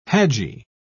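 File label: "hadji", British English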